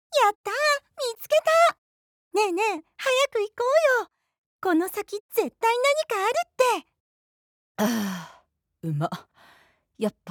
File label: japanese video games